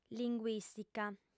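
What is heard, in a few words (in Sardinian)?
"linguìstica", Sardinian, female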